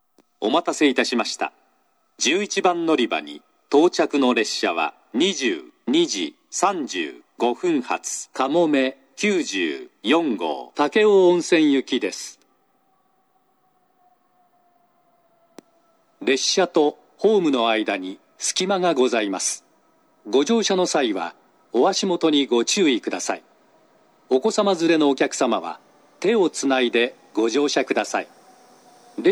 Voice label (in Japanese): 嬉野温泉, 11番のりば接近放送; かもめ94号 武雄温泉行き